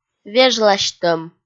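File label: "вежласьтӧм", Komi, female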